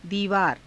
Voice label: "diivaara", Hindi